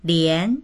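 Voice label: "lian2", Mandarin Chinese; 连; 介; …もいっしょに、…までも；続けて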